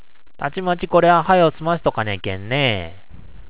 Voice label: "tatimati", HIROSHIMA ben; たちまち とりあえず

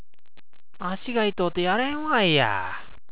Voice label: "yaren", HIROSHIMA ben; やれん 我慢できない（？）

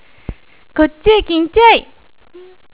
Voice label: "kintyai", HIROSHIMA ben; きんちゃい きなさい 主に女性が使用する。相手に対してきついかな？という遠慮がある場合に使用 （子供にも使います）

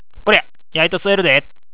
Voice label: "yaito", HIROSHIMA ben; やいとすえるでぇ お灸をする これも上に同じ

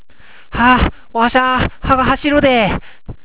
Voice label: "hashiru", HIROSHIMA ben; 歯がはしる 歯が痛い むしろ”しみてひりひりする”という方がニュアンスが合う。マキロンを傷口にぬっても”はしる”と表現する

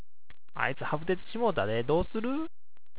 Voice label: "habuteru", HIROSHIMA ben; はぶてる ふてくさる これもポピュラーな言葉

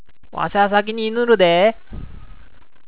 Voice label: "inuru", HIROSHIMA ben; いぬる 帰る これは広島弁特有、というわけではないと思う

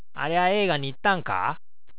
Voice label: "eegani", HIROSHIMA ben; ええがに 具合良く!うまく!都合良く!

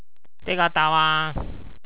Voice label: "tau", HIROSHIMA ben; たう （手などが）届く

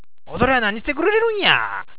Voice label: "odorya", HIROSHIMA ben; おどりゃあ こらぁ(が近いかなあ)，お前は; 私は接頭語として日常的に使用する。

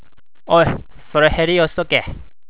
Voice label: "heri", HIROSHIMA ben; へり はし(端)

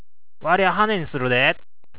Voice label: "hane", HIROSHIMA ben; はねにする なかまはずれにする

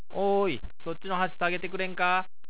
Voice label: "sageru", HIROSHIMA ben; さげる 持ち上げる どうも尾三地区（尾道、三原）で通じる言葉らしい。

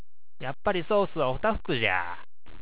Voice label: "okonomi", HIROSHIMA ben; おこのみやき お好み焼き(広島風); これはうまい！私は必ず”肉玉そば”を注文する。

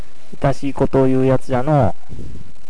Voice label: "itasii", HIROSHIMA ben; いたしい むずかしい ”みやすい”の反対語でありながらこちらはほとんど聞かない。

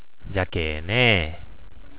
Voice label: "jakee", HIROSHIMA ben; じゃけぇねぇ、 ですからぁ、 相手をやさしく諭す時に使用する; 広島弁

